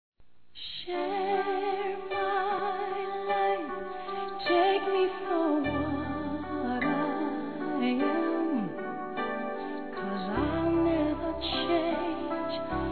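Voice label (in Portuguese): RECONC. NOIVADO PLANOS masculino; Telemensagens; Reconciliação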